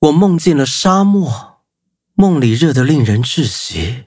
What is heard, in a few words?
level up, zh-hant